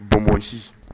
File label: "bonbonsi", Bambara